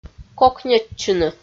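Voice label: "кокньӧдчыны", Komi, female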